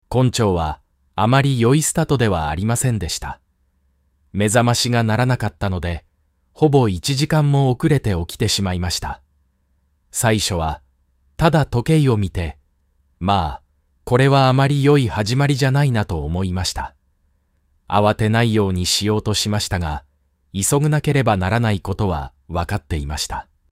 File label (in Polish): japonski